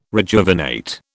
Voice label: "rejuvenate", British

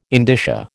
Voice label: "indicia", American

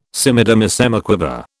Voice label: "semidemisemiquaver", British

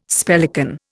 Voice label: "spellican", Indian

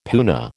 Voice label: "puna", American

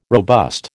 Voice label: "robust", American

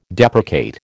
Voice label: "deprecate", American

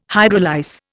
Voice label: "hydrolyse", Indian

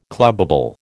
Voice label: "clubbable", American

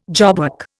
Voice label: "jobwork", Indian

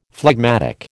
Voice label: "phlegmatic", American

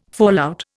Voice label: "fallout", Indian

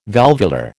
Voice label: "valvular", American

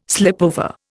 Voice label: "slipover", Indian

